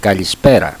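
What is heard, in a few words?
"kalispera", Greek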